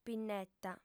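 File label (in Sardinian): "pinneta", female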